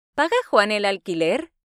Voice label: Spanish Listening; Spanish Test